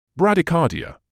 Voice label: "bradycardia", medical english uk; brad-i-KAH-dee-uh; ˌbræd.iːˈkɑː.diː.ə